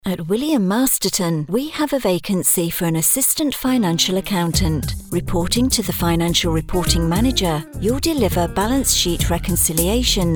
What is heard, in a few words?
Corporate Professional